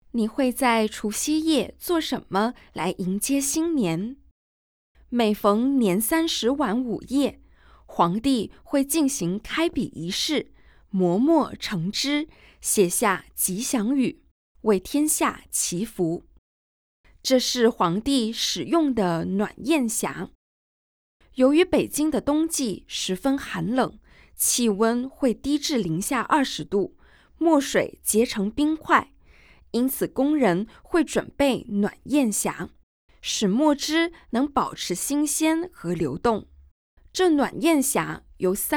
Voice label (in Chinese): Mandarin VO; audio guide; g2-from-dawn-to-dusk